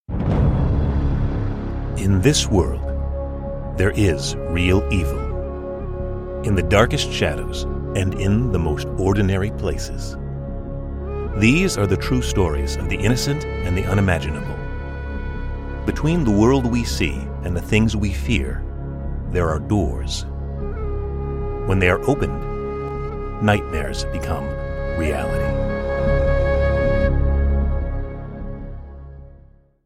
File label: english american movie trailers